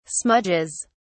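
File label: "smudges", British English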